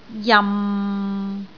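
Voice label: "jam6", Cantonese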